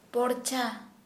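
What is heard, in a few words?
Santali female bowstring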